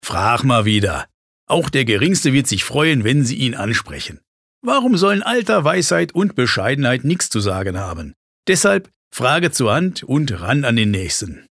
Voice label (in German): Dialekt Rheinisch